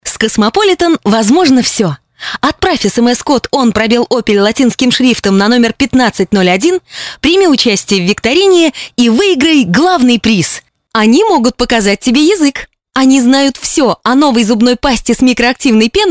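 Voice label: Russian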